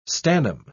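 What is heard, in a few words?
"stannum", British English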